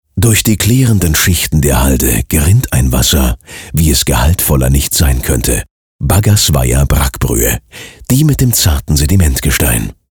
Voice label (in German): castingtext geheimnissvoll